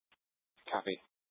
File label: Mesa PD Fiesta Dispatch; Mesa Simulcast